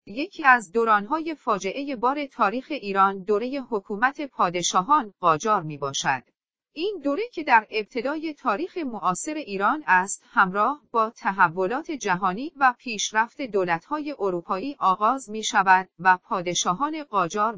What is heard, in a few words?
female